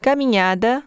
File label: Portuguese climbing; samsung YP-U5; Audio User Interface